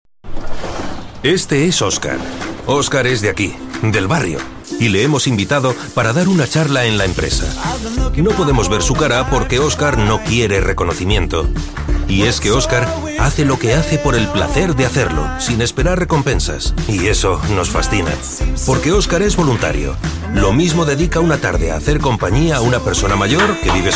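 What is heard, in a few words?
male, Spanish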